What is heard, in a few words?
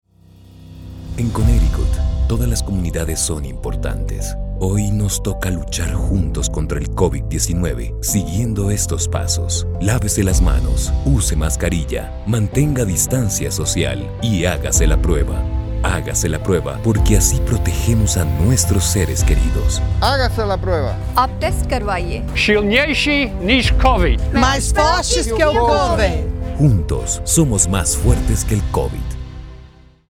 Spanish Radio Ad; StongerThanCOVID; Coronavirus